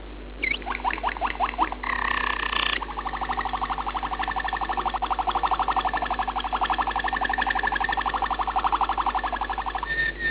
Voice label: malinos spanish